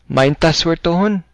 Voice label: good luck, cebuano